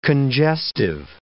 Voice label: "congestive", English